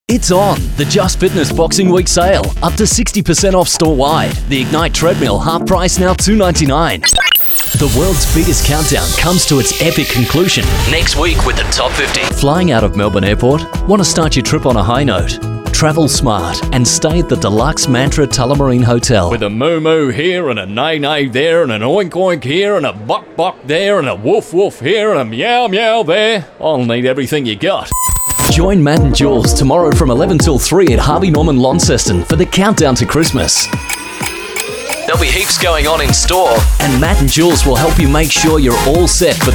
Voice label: male talent retail